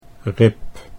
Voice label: "rib", Dutch

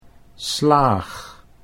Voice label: "slaag", Dutch

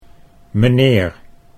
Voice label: "meneer", Dutch

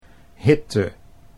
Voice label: "hitte", Dutch

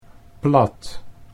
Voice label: "plat", Dutch

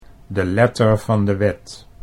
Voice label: "de letter van de wet", Dutch

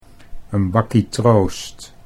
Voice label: "'n bakkie troost", Dutch

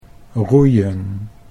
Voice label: "roeien", Dutch

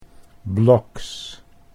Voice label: "blocks", Dutch English

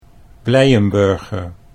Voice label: "Blijenburger", Dutch

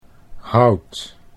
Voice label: "hout", Dutch

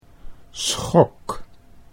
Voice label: "schok", Dutch

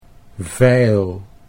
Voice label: "vijl", Dutch

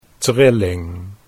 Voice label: "trilling", Dutch

